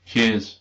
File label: "thanks", English